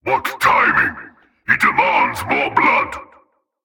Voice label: Male Alert Line; NPC; Heist Cultist Spotted